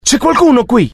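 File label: curious, Italian; mohaa; dialogue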